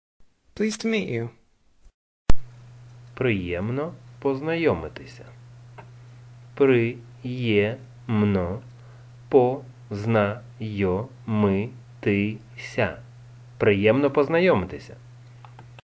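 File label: Please to meet you, Ukrainian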